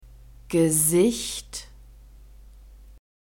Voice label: "gesicht", German; neuter